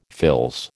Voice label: "fils", American

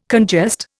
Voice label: "congest", Indian

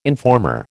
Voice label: "informer", American

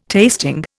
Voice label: "tasting", Indian

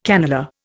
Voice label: "cannelure", Indian

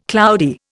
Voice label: "cloudy", Indian

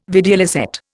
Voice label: "videlicet", Indian

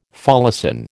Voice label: "folacin", American

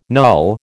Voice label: "null", American

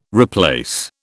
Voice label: "replace", British

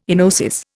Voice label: "enosis", Indian

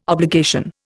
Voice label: "obligation", Indian

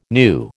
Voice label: "new", American

